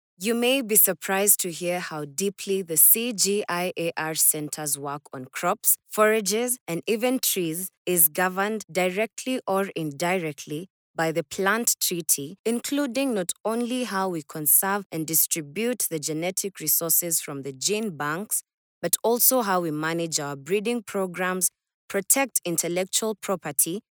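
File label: English, African, female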